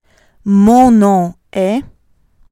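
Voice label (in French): "Mon nom est", French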